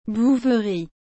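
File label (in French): "bouverie", French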